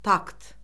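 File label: "takt", Azerbaijani; Orfoqrafiya Lüğəti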